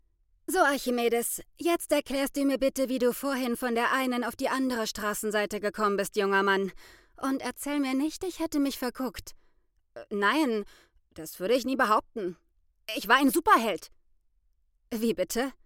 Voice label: Hoerbuch Leseprobe

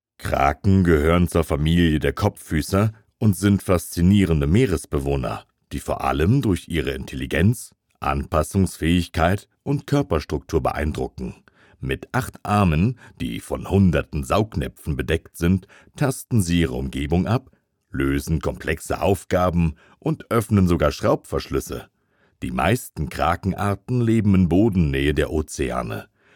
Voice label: Sachtext, sprecherinnen

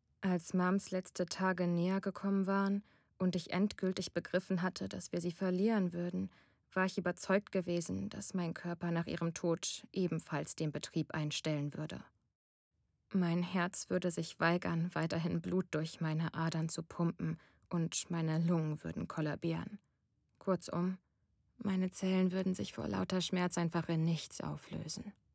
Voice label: YA Mystery, stimmen weiblich

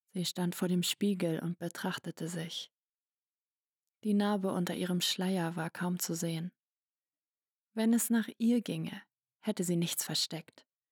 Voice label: Erzaehlstil, stimmen weiblich

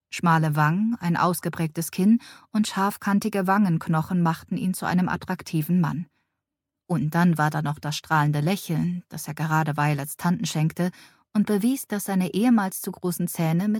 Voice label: Romance, Hörbücher; Stimmen Weiblich; Flowers for Violet

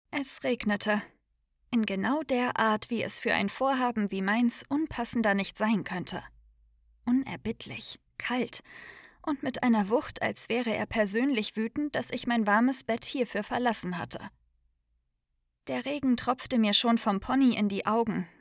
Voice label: Hoerprobe Cosy Crime; Stimmen Weiblich

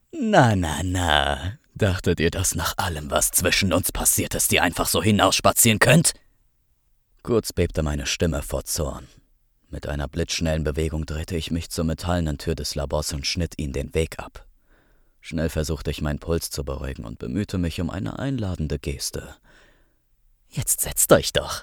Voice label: Boesewicht Hoerbuch

